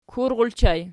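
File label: "kul", ergative plural, Archi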